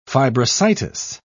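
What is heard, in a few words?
"fibrositis", English, British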